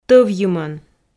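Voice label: "тӧвйымӧн", Komi, female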